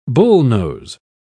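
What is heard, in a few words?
"bullnose", British English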